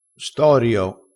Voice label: "storio", crespinese

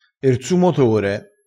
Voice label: "su", crespinese

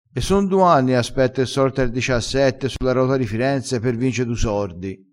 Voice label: sorti, vocabolario dialettale

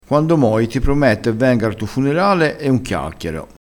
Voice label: "moi", crespinese

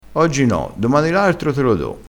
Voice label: "artro", crespinese

